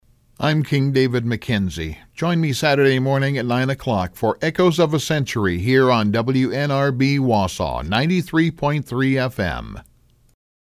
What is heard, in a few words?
promo; wnrb